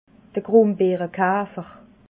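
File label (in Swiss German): "grumbeerekafer", Alsatian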